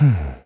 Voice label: Think, kr; Riheet; kingsraid

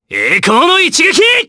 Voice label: Vox Skill jp; kingsraid

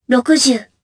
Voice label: Vox Casting jp; Kara; kings raid

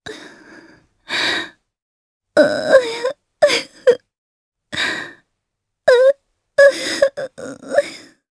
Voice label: Sad, jp; Yuria; kingsraid

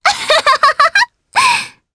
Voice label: Vox Happy, jp; voices; heroes; Frey; kingsraid

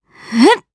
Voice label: Casting, jp; Pansirone; kingsraid; voices heroes

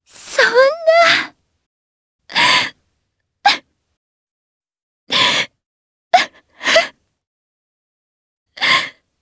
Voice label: Sad, jp; heroes; Shamilla; kingsraid

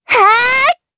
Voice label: Casting, jp; Lewsia; kings raid

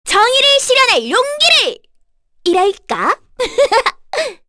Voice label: Victory, kr; Cecilia; kingsraid

